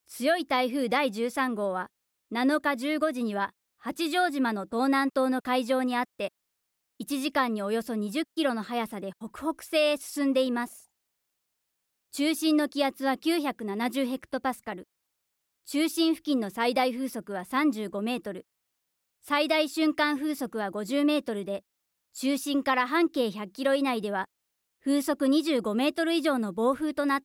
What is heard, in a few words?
female; 天気予報